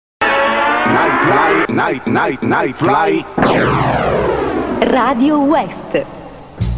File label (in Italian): spot; Nightfly